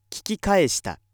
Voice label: male